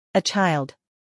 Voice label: "a child", English